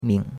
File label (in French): "ming3", chinois; Cours-de-Chinois